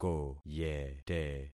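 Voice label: "koyete", concatenated syllables; Affixing experiment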